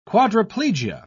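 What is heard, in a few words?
"quadriplegia", English, British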